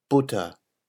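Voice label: "Butter", German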